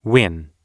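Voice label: "win", English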